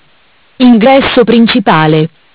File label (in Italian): "Ingresso principale", MESSAGGI VOCALI; Bentel; BLE320; Audio BGS220